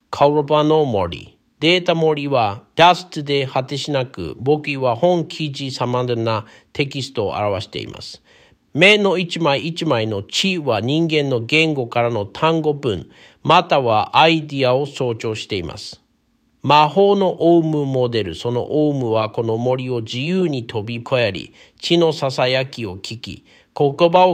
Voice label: Magical Parrot Japanese